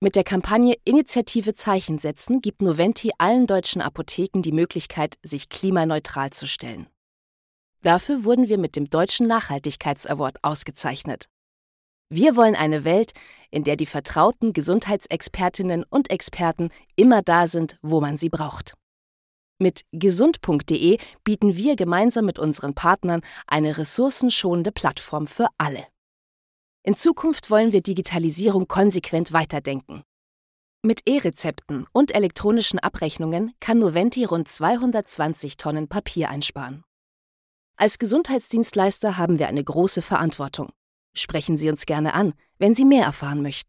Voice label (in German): Image kurz Erwachsen; Noventi